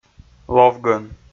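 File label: "ловгӧн", Komi, male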